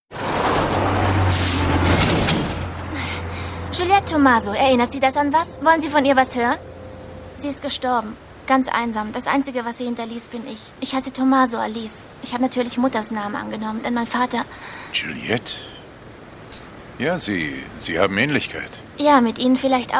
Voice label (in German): Synchron, frauen